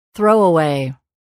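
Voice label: "throw away", English word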